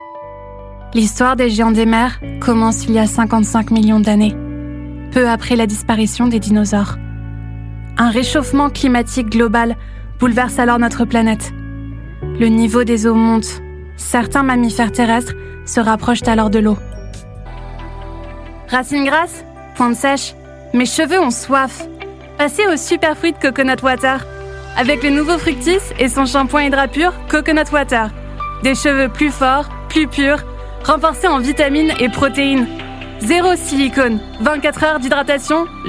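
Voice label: FR General, French; Golocalise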